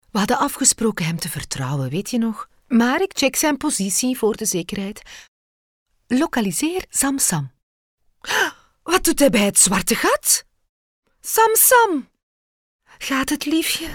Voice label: dubbing